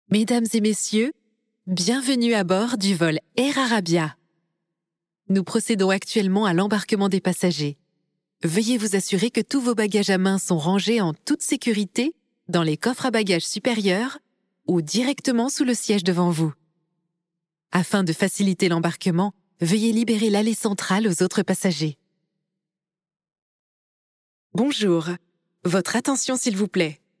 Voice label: Telephone Voice Prompts Announcements, Medley, Train mono; Medley 2; Air Arabia